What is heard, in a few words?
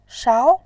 "shao2", voice